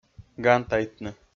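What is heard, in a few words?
"гантайтны", Komi-Zyrian, male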